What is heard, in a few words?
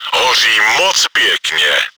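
enemy fire started by player, чешской озвучки; Hoří moc pěkně!; Он так красиво горит!; Czech; World of Tanks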